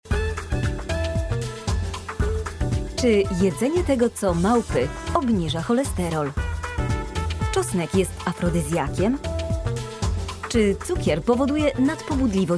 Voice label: female polish compilation